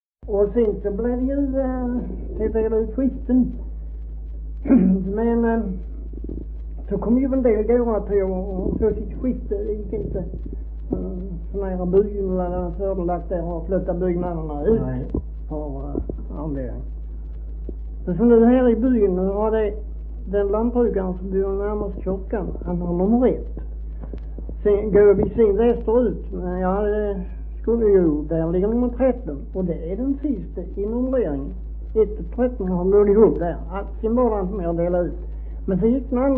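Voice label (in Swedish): Maglehem Skåne, Svenska sagor dialekter; Folkminnen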